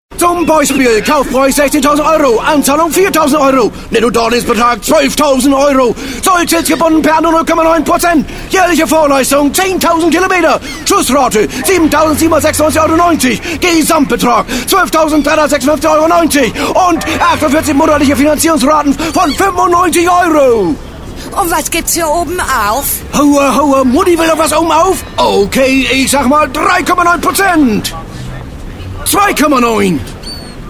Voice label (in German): norddeutsch gebrauchtwagen; vw